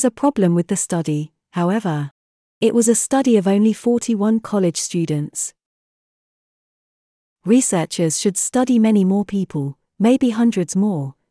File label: uk selfies